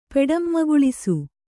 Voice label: "ಪೆಡಂಮಗುಳಿಸು", Kannada

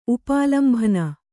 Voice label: "ಉಪಾಲಂಭನ", Kannada

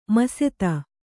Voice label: "ಮಸೆತ", kannada

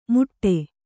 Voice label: "ಮುಟ್ಟೆ", Kannada

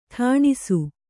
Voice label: "ಠಾಣಿಸು", Kannada

